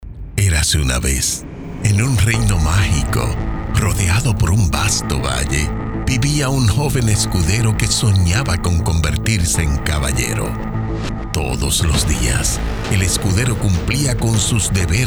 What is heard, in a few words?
spanish latin american narration